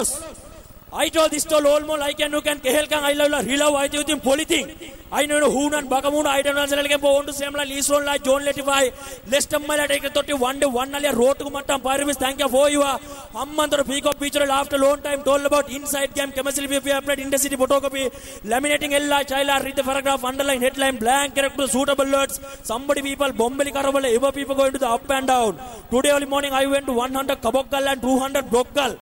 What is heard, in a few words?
funny sinhala; ringtone